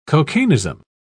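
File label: "cocainism", British English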